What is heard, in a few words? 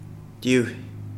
Kapingamarangi nose